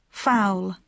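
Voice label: "foul", English; diphthongs aou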